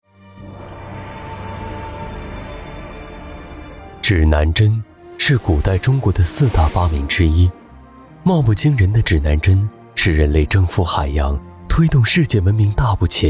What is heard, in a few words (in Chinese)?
纪录片青年讲述; 司南